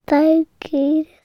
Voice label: human 3 year old english boy says boggie softly